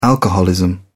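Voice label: "alcoholism", British English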